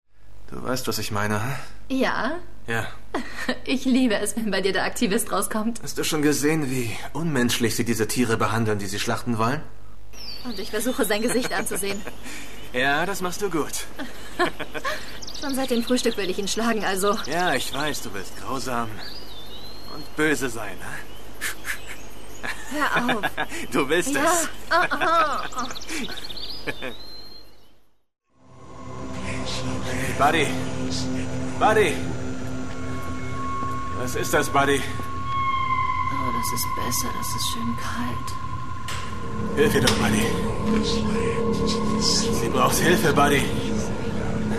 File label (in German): synchron; Sprecher in Berlin; Muttersprache Deutsch; staunton hill